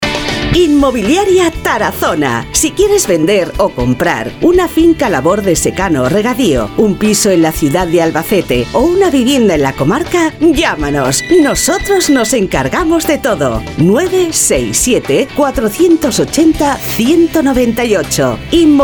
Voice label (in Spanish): MUJER